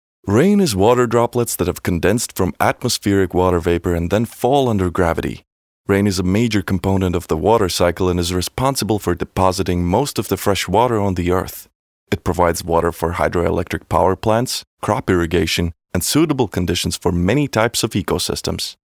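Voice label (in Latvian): English Stastijums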